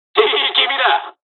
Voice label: dialog, dialogs japanese; us midway; War Thunder

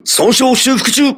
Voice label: repairing, voice message, crew ships japanese

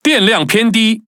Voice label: Speech Alarms Sub Battery Low; World Of Warships; ZH TW

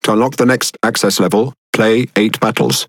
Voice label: Speech Access Level Unlock, EN GB

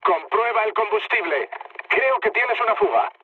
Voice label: tank damaged, mood low, speech; War Thunder

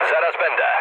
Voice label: near waypoint mood low, speech pol; War Thunder

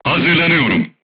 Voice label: voice message reloading, crew tanks turkish

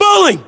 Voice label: name bowling, localized english battlechatter us

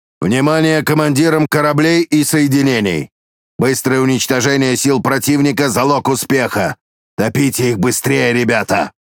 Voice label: Ru TRIPLE KILL; PVE

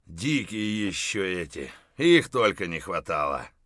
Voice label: mutter; Escape from Tarkov

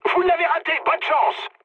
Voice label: bomb failure mood med, speech fr; War Thunder

